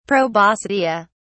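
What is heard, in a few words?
"proboscidea", British English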